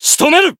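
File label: Voice Japanese; finish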